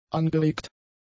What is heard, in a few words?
"angeregt", German; adjectives